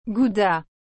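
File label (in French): "gouda", French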